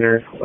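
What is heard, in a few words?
FIRE Operations, p25; FIRE OPS 3; wake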